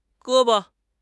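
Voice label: "ge1 bo5", Chinese; HSK4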